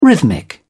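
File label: "rhythmic", British English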